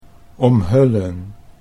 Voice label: "omhullen", Dutch